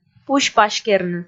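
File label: "пусь-паськерны", Komi, female; kpv audio dict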